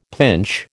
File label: "pinch", American